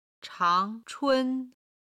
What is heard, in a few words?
"chang2chun1", chinese